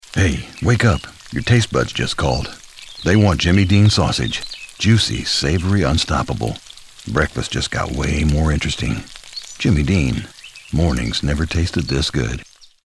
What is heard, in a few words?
Radio Commercials, Older Male, warm & engaging Male Voice Over; Sausage; Spotify Ad - Jimmy Dean